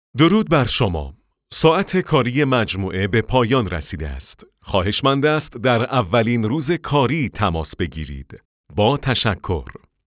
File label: men